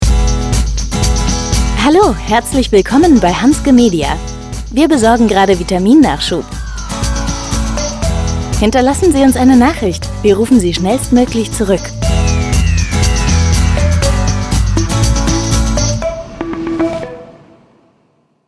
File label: voicemail; hansge media